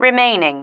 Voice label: "remaining", female